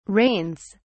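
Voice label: "rains", British English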